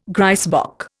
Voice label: "grysbok", Indian